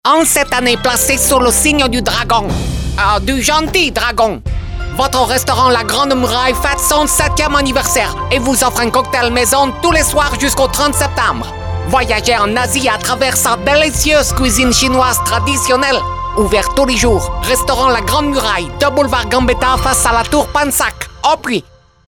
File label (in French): chinois